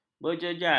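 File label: "berjejal", Malay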